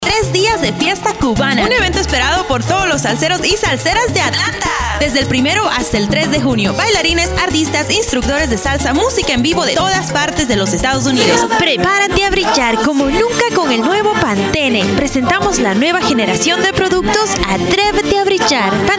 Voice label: Spanish